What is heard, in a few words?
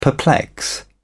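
"perplex", British English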